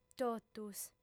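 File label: "totus", female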